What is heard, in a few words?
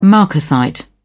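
"marcasite", English, British English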